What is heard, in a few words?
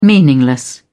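"meaningless", British English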